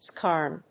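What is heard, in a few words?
"skarn", English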